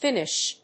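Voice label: "Finnish", English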